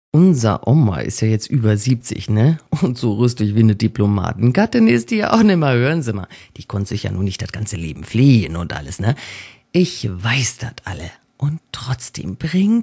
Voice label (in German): dialekt pott berlin, frauen